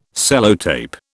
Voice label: "Sellotape", British

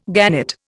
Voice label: "gannet", Indian

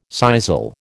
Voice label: "sisal", American

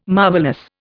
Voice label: "marvellous", Indian

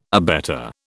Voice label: "abetter", British

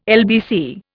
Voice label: "LBC", Indian

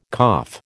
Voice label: "cough", American

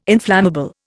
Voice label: "inflammable", Indian

